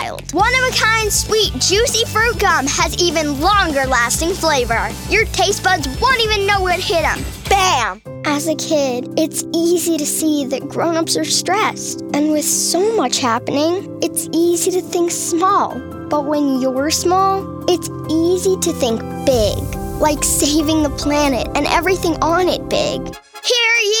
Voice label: kids commercial

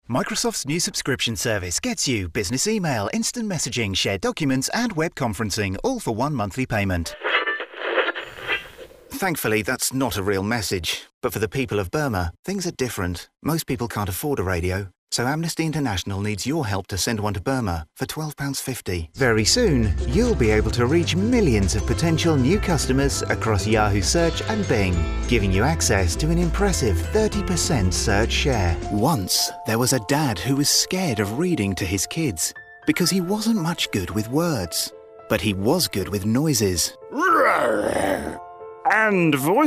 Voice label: british commercial

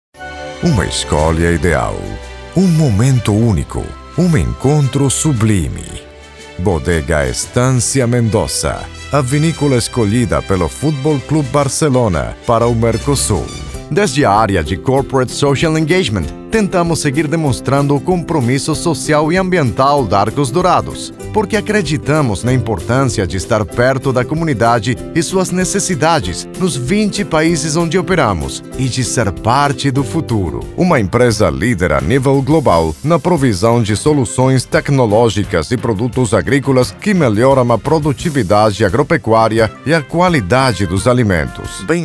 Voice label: portuguese brazilian commercial

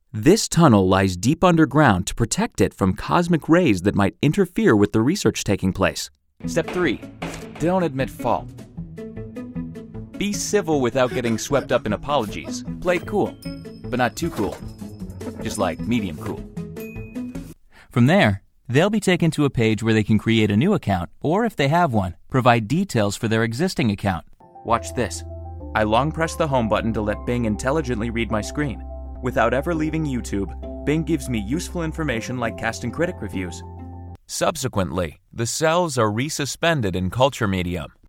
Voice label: men corporate

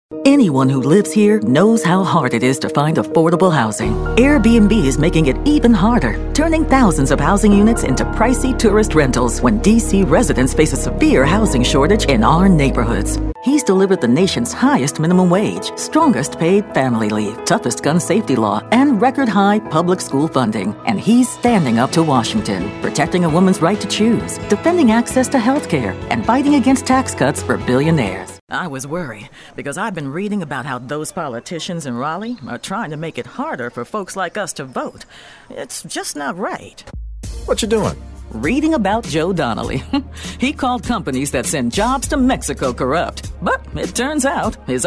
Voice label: women political

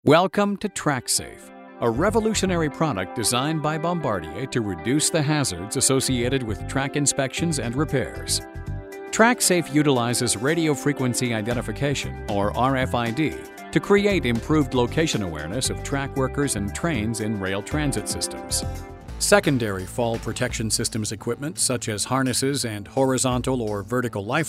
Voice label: men elearning

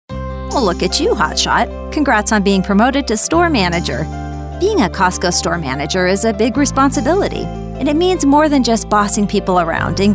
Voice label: women elearning

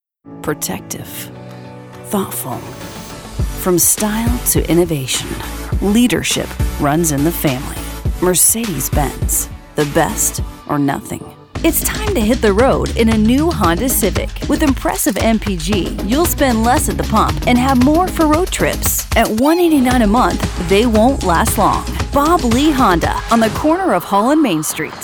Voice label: women other automotive

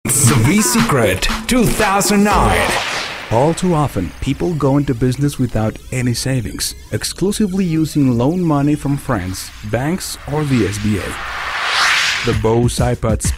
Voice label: spanish accented english

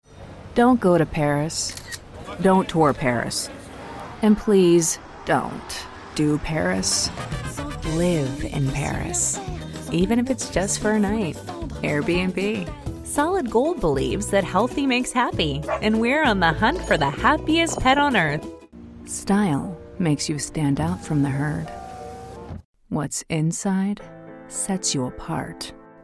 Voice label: women commercial

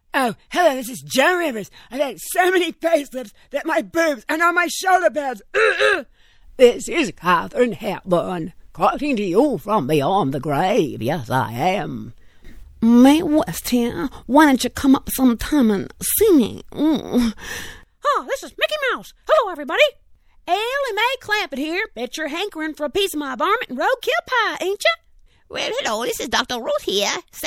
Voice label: women other impersonations

